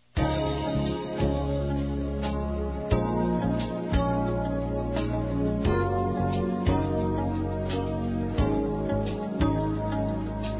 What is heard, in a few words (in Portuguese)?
Aniversário Sobrinho Masculino